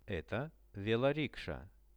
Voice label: eto veloriksha, Russian; Это велорикша.; transport; RUSSIAN VISUAL DICTIONARY